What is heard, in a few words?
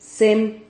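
"cem", Spanish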